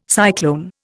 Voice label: "cyclone", Indian